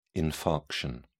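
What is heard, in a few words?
"infarction", British English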